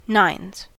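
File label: "nines", US English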